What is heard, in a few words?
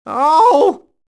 squeaky voice teen tap